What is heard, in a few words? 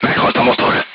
voice message engine destroyed, crew tanks hungarian